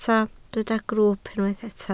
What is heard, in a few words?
"grwwp", Welsh